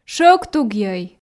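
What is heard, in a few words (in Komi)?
"шӧвктугйӧй", Komi, female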